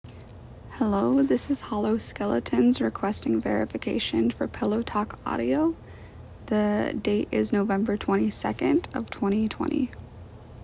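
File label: Female Verification Request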